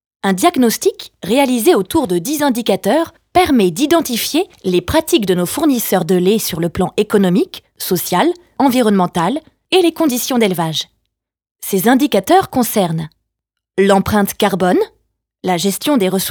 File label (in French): voix off femme fr; Studio Com'un Son; SPOTS RADIOS